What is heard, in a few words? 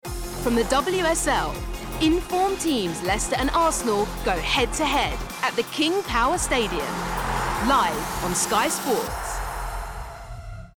Promo; WSL